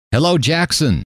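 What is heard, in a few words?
"hello", male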